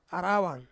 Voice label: "arawan", Ansus; branch

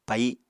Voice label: "pai", Apatani

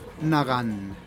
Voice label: "nagan" diffficult

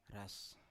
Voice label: "ras", Biak

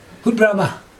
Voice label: "hudbrama", Chug, hand; noun

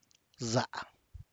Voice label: zaá, corn, Santiago Matatlán Zapotec; elote

